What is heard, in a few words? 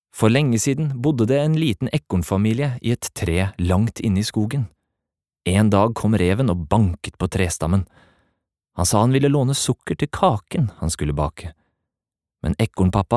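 Norwegian forteller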